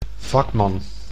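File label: "vakman", Dutch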